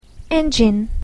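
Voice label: "engine", English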